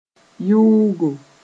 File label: "yɩʋʋgo", Mooré; pincers